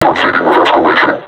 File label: taunt, vo combine suppressor; Half Life Alyx